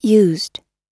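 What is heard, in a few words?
"used", American English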